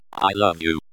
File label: Pc says i love you